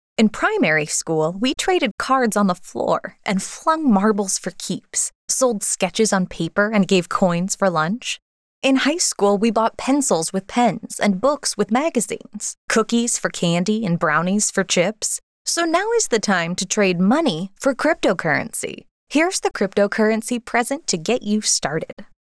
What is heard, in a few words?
male in primary school we